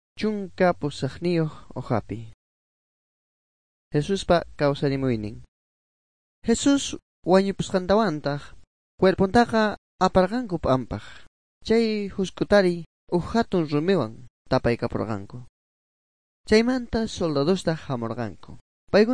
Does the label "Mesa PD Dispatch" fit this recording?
No